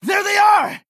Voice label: Male Orc Adolescent Attack